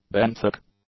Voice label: "benthic", English; adjectives